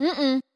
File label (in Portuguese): Negaçao neutra